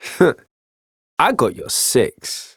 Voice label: Ambient Barks, en; Warframe; Mall Romance; Quincy